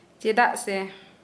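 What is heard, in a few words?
"because", Santali, female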